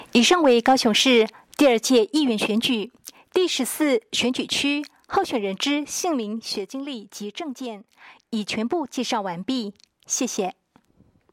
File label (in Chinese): 國語, 有聲選舉公報; 高雄市; 高雄市市長議員有聲選舉公報; 第十四選區